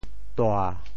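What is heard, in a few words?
"tua7", Teochew; 潮州; 大蓬草; 狗尾草、狼尾草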